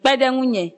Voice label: help, ewe

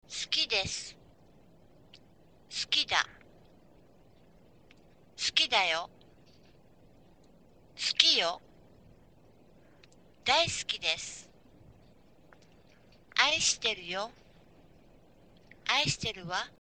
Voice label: i love you, japanese

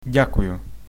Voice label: thanks, ukrainian

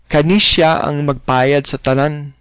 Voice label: pay, cebuano

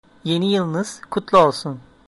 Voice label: new year, turkish

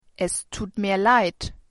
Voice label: sorry, german

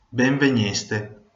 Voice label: welcome, venetian

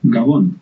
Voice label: goodnight, basque

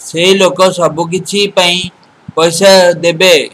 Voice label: pay, odia

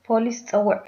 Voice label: police, tigrinya

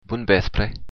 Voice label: good afternoon, occitan

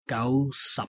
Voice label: cantonese, numbers

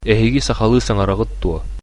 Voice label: do you speak, sakha

